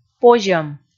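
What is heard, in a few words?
"позьӧм", Komi, female; kpv audio dict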